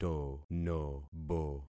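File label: "tonobo", concatenated syllables; Affixing experiment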